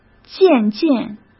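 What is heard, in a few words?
"渐渐", Mandarin Chinese; chinese flashcards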